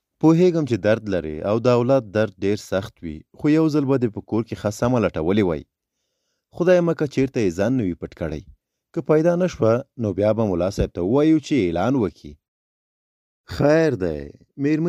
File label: MALE PASHTO